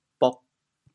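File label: "bog4", Teochew, chaoyang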